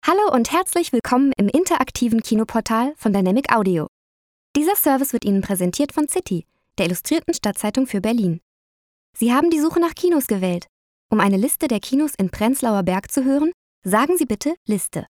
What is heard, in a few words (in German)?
deutsch frauen telefon; sprecher